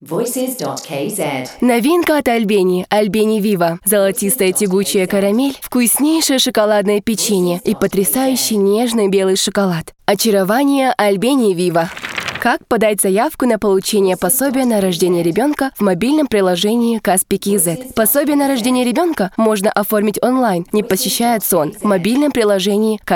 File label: ru